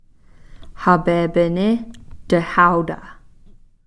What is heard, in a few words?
"hapeepini-tihauta", Cow Creek; afternoon